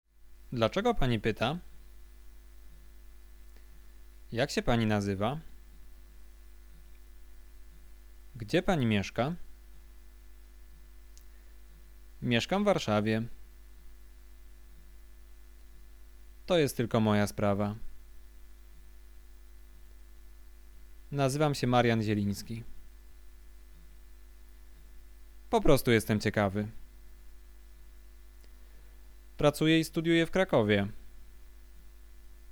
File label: conversation; lektorek; firstyear